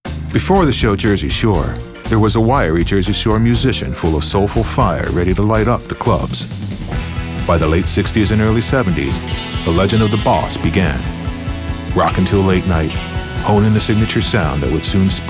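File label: narration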